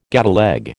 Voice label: "gateleg", American

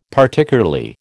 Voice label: "Particularly", American

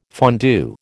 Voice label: "fondue", American

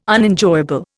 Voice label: "Unenjoyable", Indian

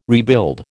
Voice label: "rebuild", American

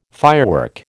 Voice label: "firework", American

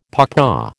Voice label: "pawpaw", American

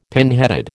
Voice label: "pinheaded", American

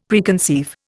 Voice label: "Preconceive", Indian; Dictionary

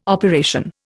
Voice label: "operation", Indian

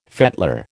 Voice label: "fettler", American

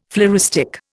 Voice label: "floristic", Indian

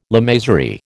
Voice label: "lamasery", American

